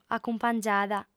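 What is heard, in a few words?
"acumpangiada", lingua sarda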